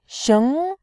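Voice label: "xing", Mandarin Chinese, tone 2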